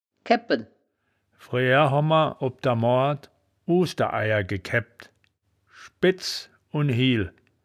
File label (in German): "kaebbpen", wittlich